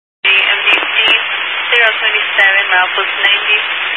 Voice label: Highway Patrol, scanner; DPS; Dist 06 Casa Grande